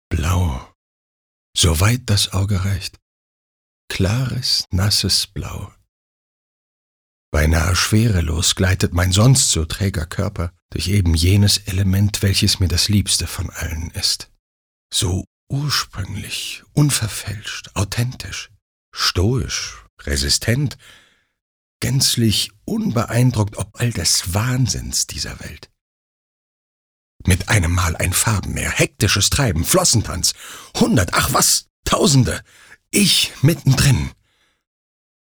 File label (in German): Sanft